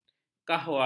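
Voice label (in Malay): "kahwa", Malay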